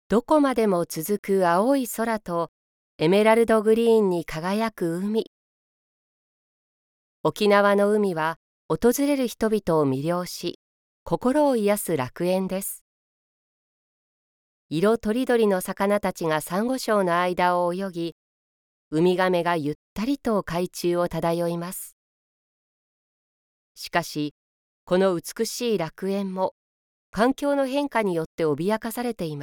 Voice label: female